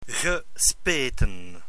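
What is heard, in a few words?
"gespeten", Dutch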